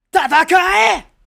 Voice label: "Fight" anime boy character, japanese voice over